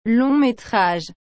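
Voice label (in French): "long metrage", French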